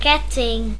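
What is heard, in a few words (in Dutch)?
"ketting", Dutch; tdegames; ktuberling; nl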